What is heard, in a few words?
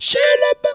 "CHELOB", funky vocals; USB Soundscan vol.18; AKAI; TB MELODY